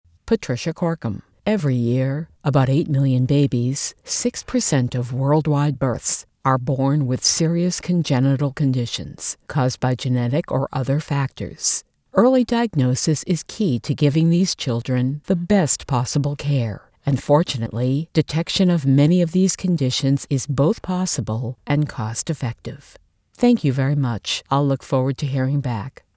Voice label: Medical Product Explainer Video, Believable and natural, Professional and classy, Mature and knowledgeable Female